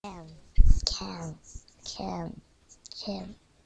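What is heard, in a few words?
"can", spoken word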